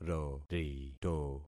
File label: "rorito", concat syllables; Affixing experiment